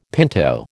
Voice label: "pinto", American